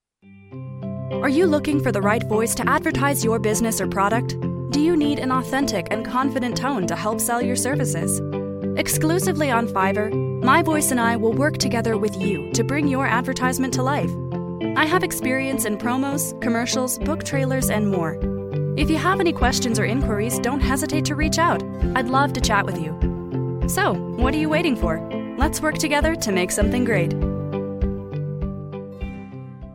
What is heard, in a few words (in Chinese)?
外籍女英 外语 美式英语 干音